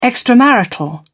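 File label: "extramarital", British English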